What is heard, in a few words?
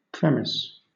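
"premise", British English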